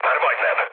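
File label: Hungarian near waypoint mood med, speech; War Thunder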